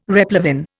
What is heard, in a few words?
"replevin", Indian